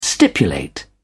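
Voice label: "stipulate", English, British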